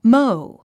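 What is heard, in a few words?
"mow", English word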